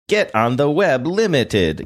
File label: saying get on the web limited funny